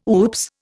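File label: "oops", Indian English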